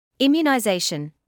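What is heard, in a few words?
"immunisation", British English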